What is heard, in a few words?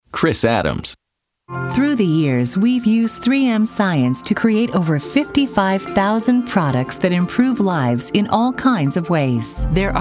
NARRATION